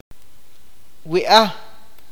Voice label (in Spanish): "cabeza", Awakateko